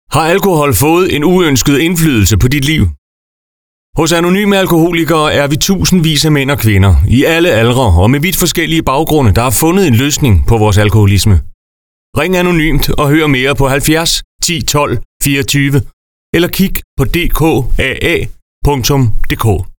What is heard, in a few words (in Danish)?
Radiospot; AA-service i region Midtjylland